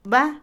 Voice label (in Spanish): Otomí, mujer; seno; Cuerpo; INALI